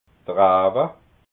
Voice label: "rawa", alsace

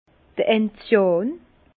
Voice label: "enzian", Bas Rhin; vin; gentiane

